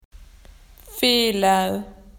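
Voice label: "wrong", Australian English; PictureDictionary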